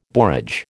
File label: "borage", American